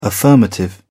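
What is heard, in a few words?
"affirmative", English, uk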